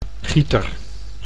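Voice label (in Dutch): "gieter", Dutch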